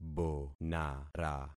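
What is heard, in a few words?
"bonara", concatenated syllables; Affixing experiment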